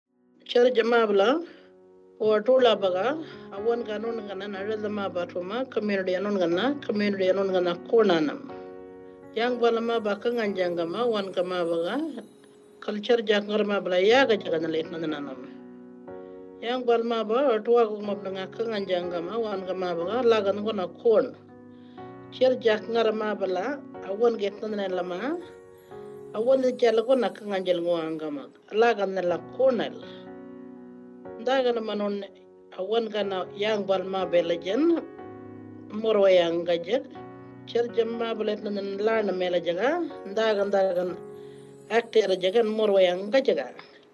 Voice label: Arrernte radio